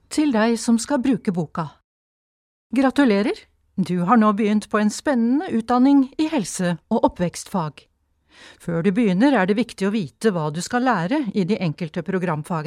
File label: NOR Audiobook F